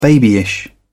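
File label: "babyish", British English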